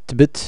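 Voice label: moroccan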